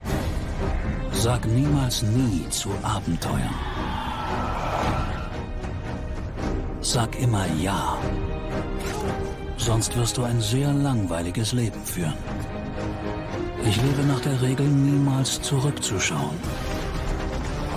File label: Commercial; Bond Moments